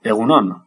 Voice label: good morning, basque